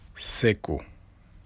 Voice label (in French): Wolof Perroquet